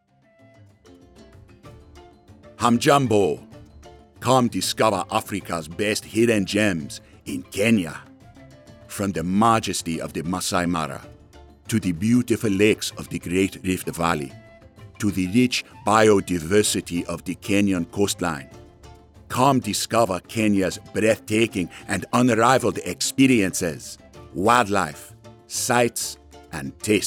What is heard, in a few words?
East African Accent; Omnivox